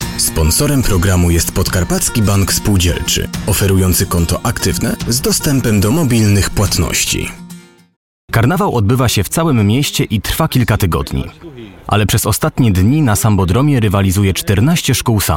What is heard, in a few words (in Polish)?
Lektor; Studio Nonagram